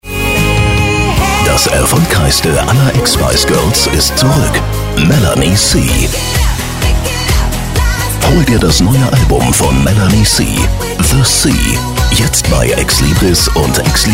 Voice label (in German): Funkspot